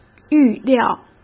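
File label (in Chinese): "预料" Mandarin; hsk; chinese-flashcards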